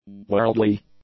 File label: "worldly", english; adjectives